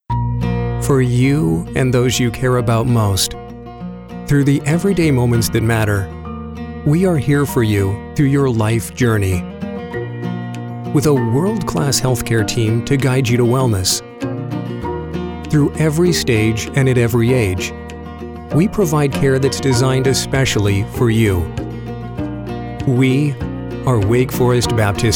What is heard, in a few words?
Caring Voice Over